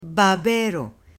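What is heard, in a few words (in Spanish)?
"babero", Spanish, female; ROPA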